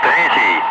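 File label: Russian bright; Ka-50; Wingman; DCS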